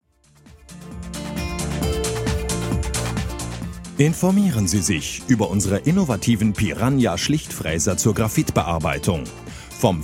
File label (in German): Werbespot; Hufschmied Zerspanungssysteme